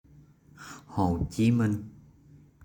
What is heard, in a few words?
Hồ Chí Minh pronunciation